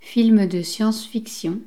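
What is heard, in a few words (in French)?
"film de science fiction", French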